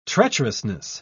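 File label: "treacherousness", British English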